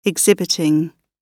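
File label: "exhibiting", British English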